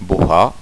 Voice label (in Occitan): "bohar", Gascon